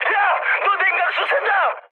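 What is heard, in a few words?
Korean damaged water mood high, speech; War Thunder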